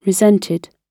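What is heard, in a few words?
"resented", British English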